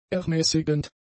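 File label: "ermäßigend", German; adjectives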